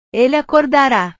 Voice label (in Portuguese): "ele acordara", portugues